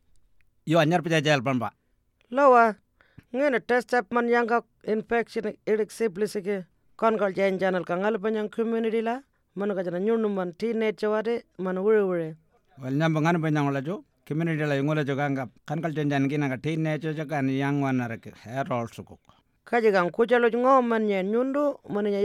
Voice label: syphilis Warlpiri; CAAMA